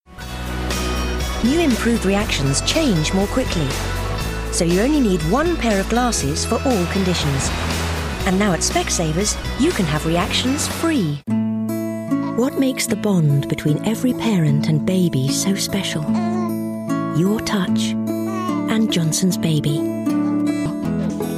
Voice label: Commercial